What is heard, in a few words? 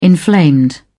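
"inflamed", British English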